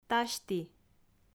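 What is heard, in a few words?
"тасьті", Komi, female; audio dict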